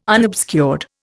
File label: "unobscured", Indian